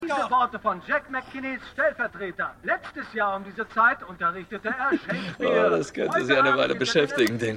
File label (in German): Radiosprecher; Winning Time